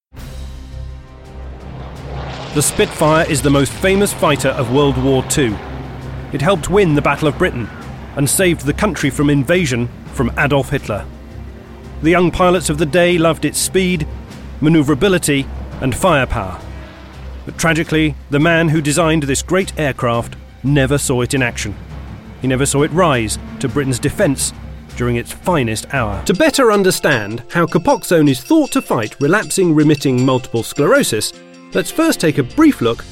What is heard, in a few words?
Narration British